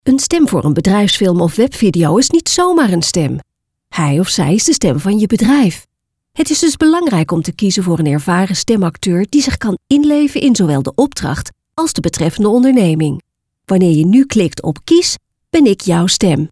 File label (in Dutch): bedrijfsfilm